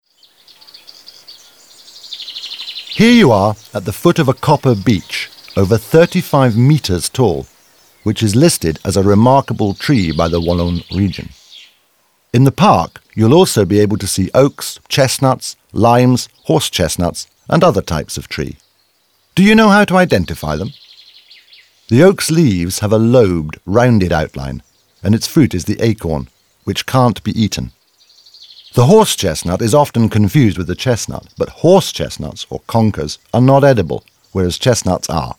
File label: audioguide en enfant; modave